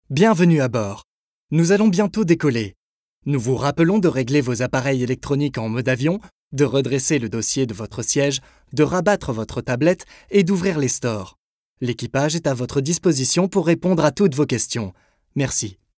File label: male french airplane comercial